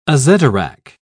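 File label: "azedarach", British English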